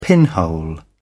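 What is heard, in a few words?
"pinhole", British English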